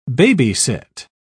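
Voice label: "baby-sit", British English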